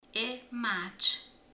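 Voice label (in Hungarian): "légy", Hungarian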